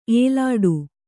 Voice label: "ಏಲಾಡು", Kannada